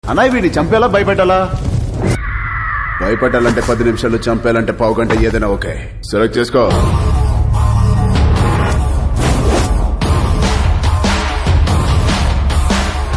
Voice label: Teaser Dialogue Telugu Ringtone; VVR; Ram Charan